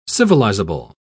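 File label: "civilizable", British English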